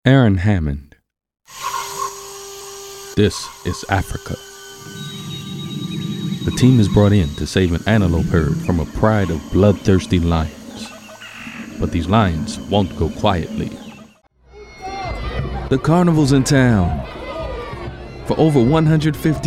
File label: Documentary Narration